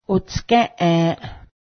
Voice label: peach pit, Cayuga; otsgę́ˀęˀ